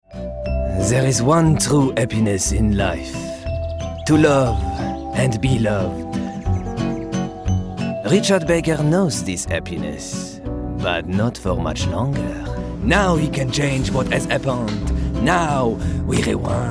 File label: English With French Accent Voicereel